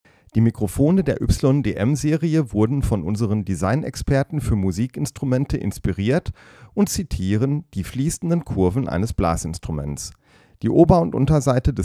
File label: Neumann KMS104plus